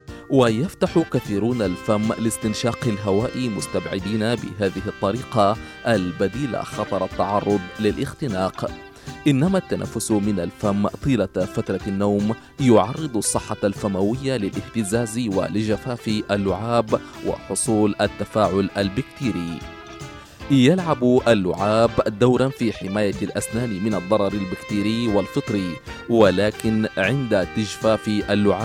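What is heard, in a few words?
Arabic Sudan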